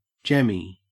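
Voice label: "jemmy", Australian English; pronunciations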